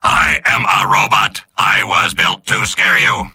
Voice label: soldier robot; mvm